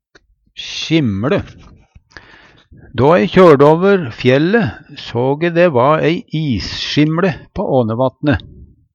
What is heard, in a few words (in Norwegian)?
issjimLe, Numedalsmål; Då e kjøyrde over fjelle, såg e dæ va ei issjimLe på Ånevatne.; Vêr og føre